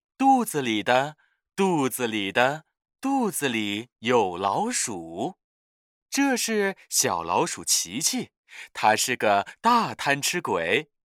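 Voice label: Chinese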